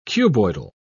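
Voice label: "cuboidal", British English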